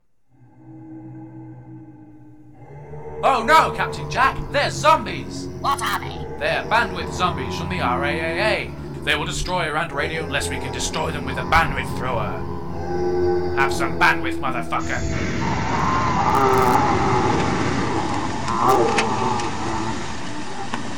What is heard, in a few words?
Promo; RantRadio; RantMedia; Station Promos-Listener Submitted